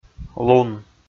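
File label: "лун", Komi, male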